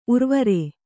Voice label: "ಊರ್ವರೆ", Kannada